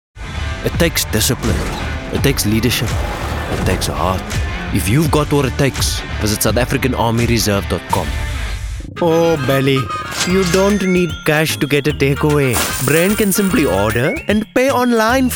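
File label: South African Character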